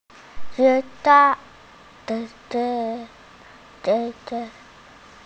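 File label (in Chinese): "bi4 ye4" Mandarin Chinese, female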